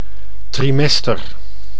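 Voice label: "trimester", Dutch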